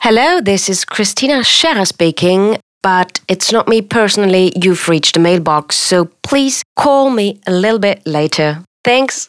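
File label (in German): Telefonansage English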